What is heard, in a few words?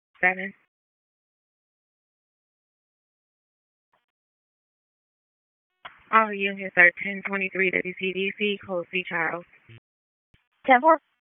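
Sheriff's Office Dispatch; WSO DISP 3; wake p25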